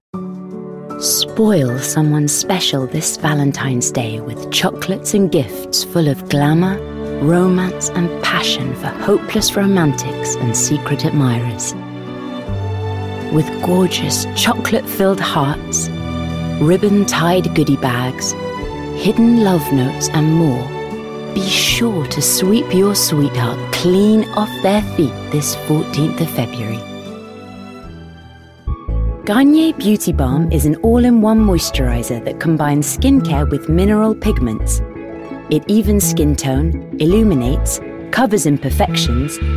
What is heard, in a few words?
Commercial